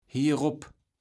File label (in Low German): "hieropp", kaevels platt